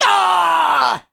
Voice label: Male Imp Death